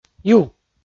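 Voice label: "ю", Komi, male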